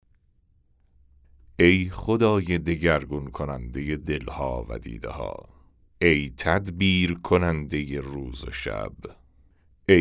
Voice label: Narration; nowruz